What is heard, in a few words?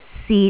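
"sit3", Cantonese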